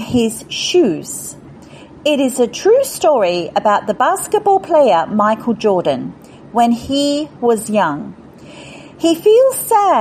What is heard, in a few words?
English Ambassador Script